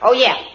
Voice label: "oh yeah", Voice